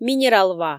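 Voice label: "минерал ва", Komi, female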